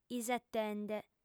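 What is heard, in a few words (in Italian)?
"isetende", lingua sarda, fem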